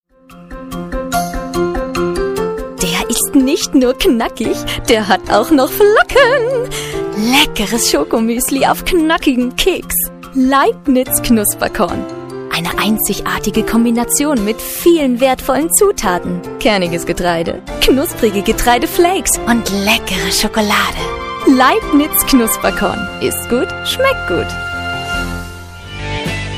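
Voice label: german female commercial; voice demos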